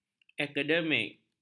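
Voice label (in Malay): "akademik", Malay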